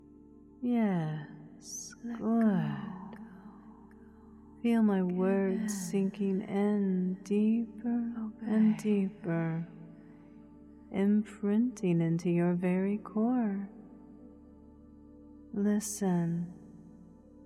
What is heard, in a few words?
Helpless Submission Meditation; Submissive Training